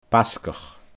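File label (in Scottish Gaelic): "Basgach", Scottish Gaelic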